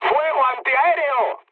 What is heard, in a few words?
damaged aa, mood med, speech; War Thunder